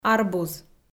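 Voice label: "арбуз", Komi-Zyrian, female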